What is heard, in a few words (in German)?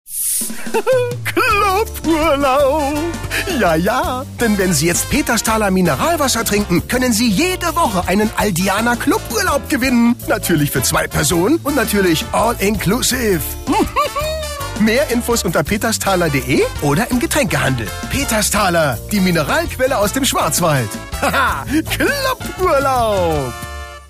Werbung Dynamisch